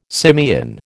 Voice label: "simian", American